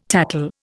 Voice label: "tattle", Indian